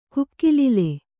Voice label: "ಕುಕ್ಕಿಲಿಲೆ", kannada

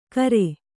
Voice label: "ಕರೆ", Kannada

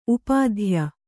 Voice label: "ಉಪಾಧ್ಯ", kannada

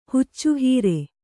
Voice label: "ಹುಚ್ಚುಹೀರೆ", Kannada

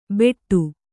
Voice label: "ಬೆಟ್ಟು", Kannada

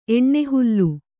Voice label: "ಎಣ್ಣೆಹುಲ್ಲು", Kannada

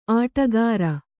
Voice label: "ಅಡಿಗಾರ", Kannada

